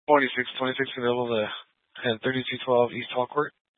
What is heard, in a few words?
Sheriff Dispatch; Mesa County